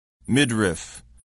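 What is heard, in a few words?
"midriff", English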